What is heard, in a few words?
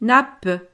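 "nappe", French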